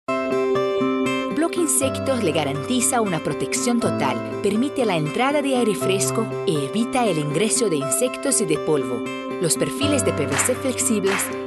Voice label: espanhol

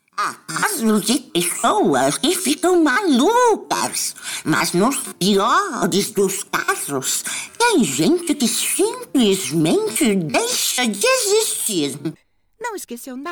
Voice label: Animações e Vozes Caricatas

